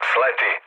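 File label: Serbian bailout mood low, speech; War Thunder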